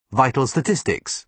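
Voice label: "vital statistics", British English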